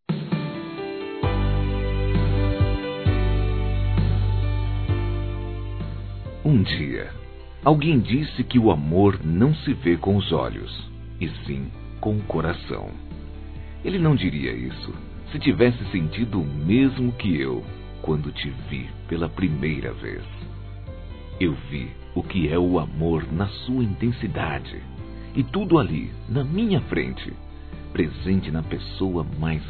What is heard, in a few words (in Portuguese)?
Aniversário Namoro Masculino